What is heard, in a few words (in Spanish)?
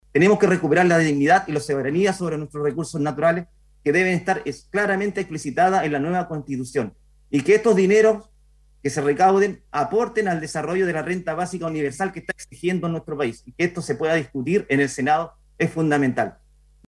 cuña